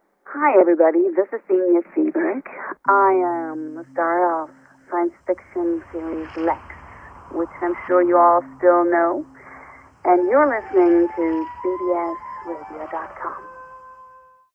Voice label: bbs radio promo